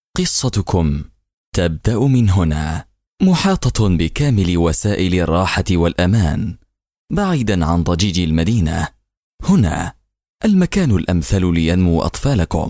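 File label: Arabic Voiceover Sample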